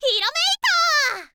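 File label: "hirameita", girl, Japanese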